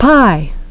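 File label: "pie", English pronunciation; paɪ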